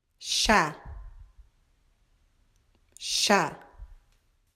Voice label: Portuguese